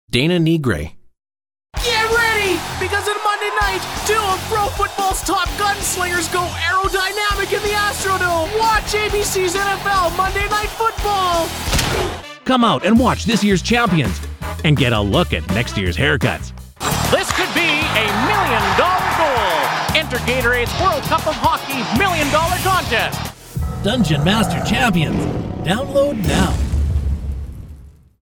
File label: Upbeat